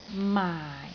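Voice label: "maai4", Cantonese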